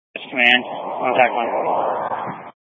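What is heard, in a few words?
Incident Tac 1, Scanner audio recording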